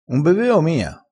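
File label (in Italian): "beveo", vocabolario dialettale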